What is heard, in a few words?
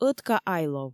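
"ӧтка айлов", Komi, female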